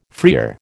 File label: "freer", American